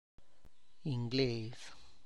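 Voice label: Tlacochahuaya Zapotec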